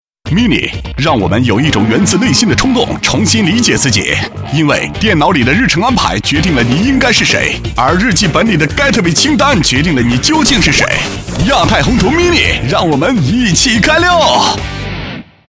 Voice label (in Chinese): 男 广告; mini品牌广告